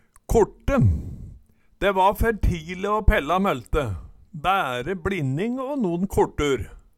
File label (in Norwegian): "korte", Numedalsmål